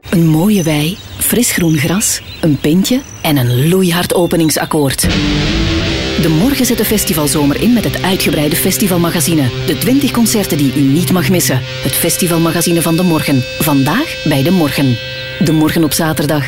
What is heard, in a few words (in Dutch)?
Commercial; De Morgen